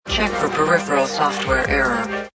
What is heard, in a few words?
Software, Female; Talking Sound Effects